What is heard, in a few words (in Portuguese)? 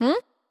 Confirmaçao neutra